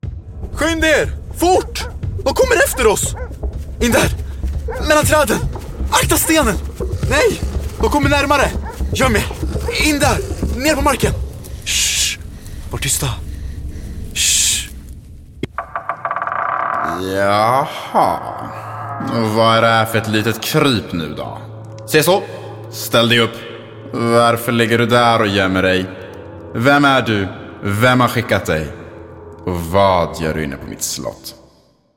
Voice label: Animation Sample Swedish, Cartoon & Character Voice